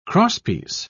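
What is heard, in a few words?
"crosspiece", British English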